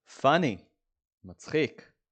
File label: "funny" – "מצחיק"